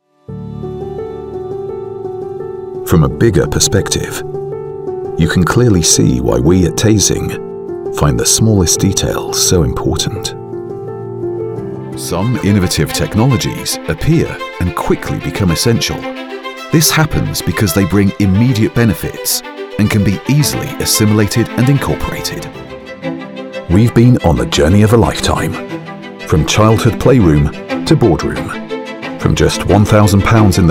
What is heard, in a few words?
Voiceover